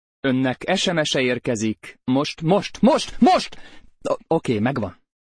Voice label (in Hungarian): Önnek SMS-e érkezik most (férfi), csengőhang